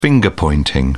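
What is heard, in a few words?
"finger-pointing", British English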